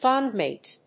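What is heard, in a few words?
"Fondmetal", English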